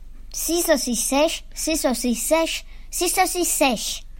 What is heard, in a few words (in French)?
Virelangue